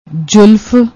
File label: "julaf", Punjabi